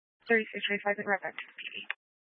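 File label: Police 1 Dispatch, Scanner audio recording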